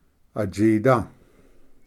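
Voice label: "atsida", Cherokee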